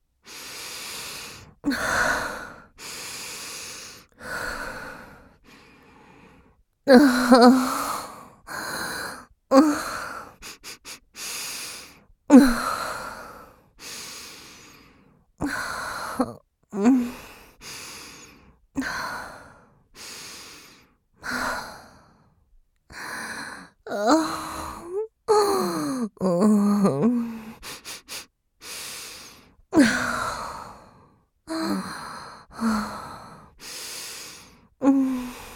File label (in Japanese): チン嗅ぎと裏筋舐め上げ 中 チン嗅ぎ, ASMR